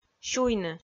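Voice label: "сюйны" Komi, female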